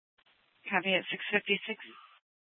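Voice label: police dispatch radio, scanner; Mesa PD Dptmnt; 1 Fiesta Dispatch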